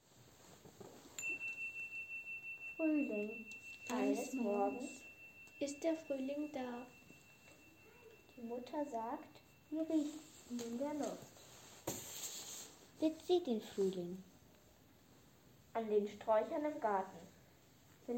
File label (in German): Gedicht Fruehling Szenisches Lesen; im Silentium